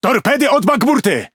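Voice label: Speech Alarms Torpedo Left, Polish; World Of Warships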